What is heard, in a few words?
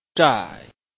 "zhai4", Chinese